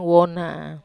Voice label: "wona", Wamesa